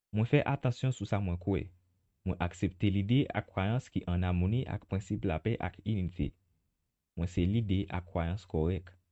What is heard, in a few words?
I Am Correct Ideas and Beliefs Creole Male